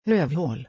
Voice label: "Rovhal", Swedish

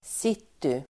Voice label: "city", Swedish

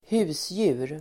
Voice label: "husdjur", Swedish

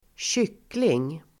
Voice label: "kyckling", Swedish

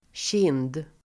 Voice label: "kind", Swedish

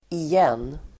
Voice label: "igen", Swedish

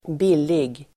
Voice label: "billig", Swedish

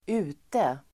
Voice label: "ute", Swedish

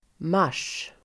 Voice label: "mars", Swedish

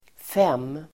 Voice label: "fem", Swedish

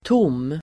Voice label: "tom", Swedish

